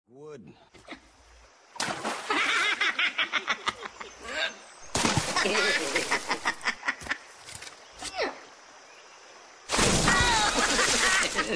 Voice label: woodchucks, commercial; geico

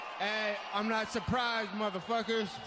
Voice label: Surprised; Nate Diaz

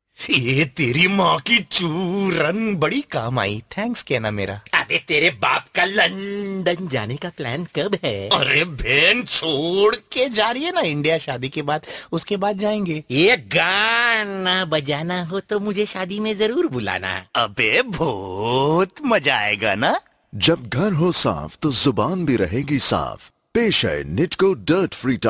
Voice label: funny abusing

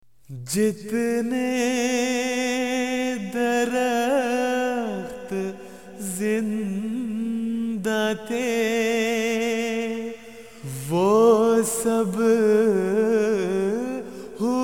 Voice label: Urdu Nazm Tone

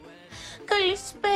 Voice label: "Kalispera", ringtones

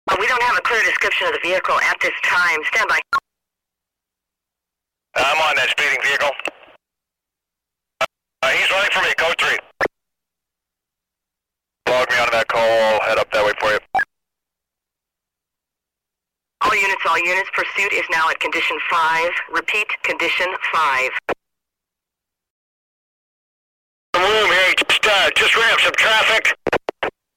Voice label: police com

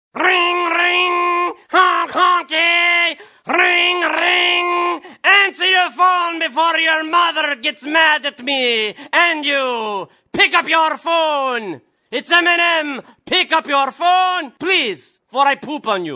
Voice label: mom calling, ringtone; mnm

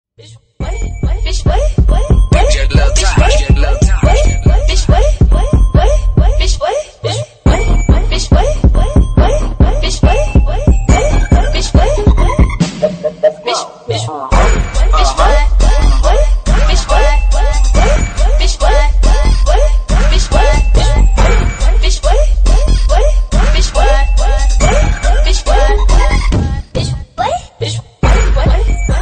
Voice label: stutering

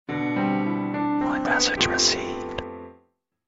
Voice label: voice message

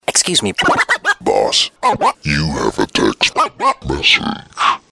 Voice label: excuse me boss remix, ringtone

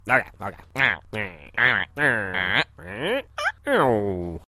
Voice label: AH Noises

